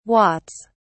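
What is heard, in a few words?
"watts", British English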